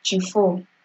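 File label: "chiefo", Nigerian Pidgin, female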